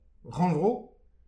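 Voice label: "rannvro", Breton; petit precis de prononciation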